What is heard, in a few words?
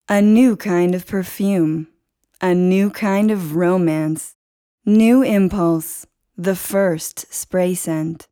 Bajada, Ingles (USA)